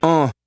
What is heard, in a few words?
"uh", male